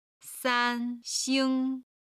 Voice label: "san1xing1", chinese